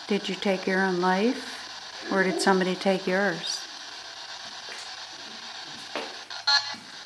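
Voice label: i did, evp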